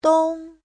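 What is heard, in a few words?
"dōng", Mandarin Chinese; 东; (danh từ) phía đông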